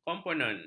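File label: "komponen", Malay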